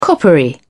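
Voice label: "coppery", British English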